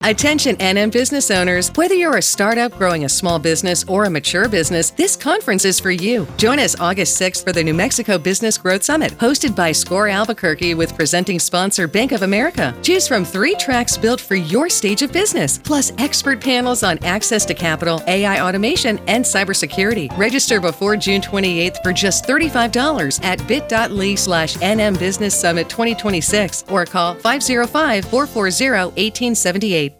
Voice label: 30 sec Commercial